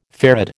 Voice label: "farad", American

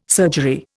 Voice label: "surgery", Indian

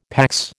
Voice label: "PAX", American

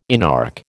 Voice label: "inarch", American

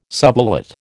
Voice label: "subulate", American

